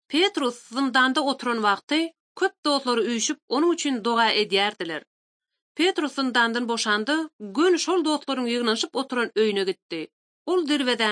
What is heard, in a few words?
turkmen, bible translation drama